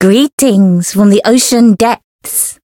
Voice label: variable chant; nagi

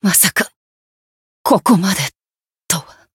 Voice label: voice jp; milla

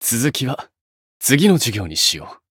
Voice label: Voice win first, jp

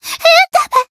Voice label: Voice damaged; myungwha

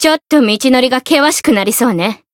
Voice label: Voice encount fear, jp; kikyou

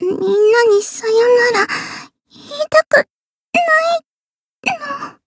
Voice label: retire, jp; lele

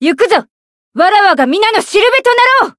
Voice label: voice limitbreak jp; hiina

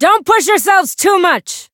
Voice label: variable chant; isis

